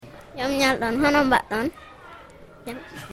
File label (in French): Pulaar; les langues au senegal